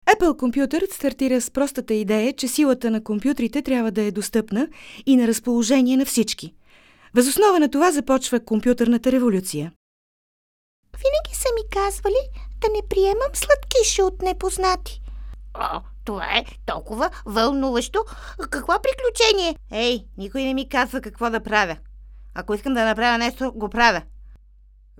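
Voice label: bulgarian female character; voice demos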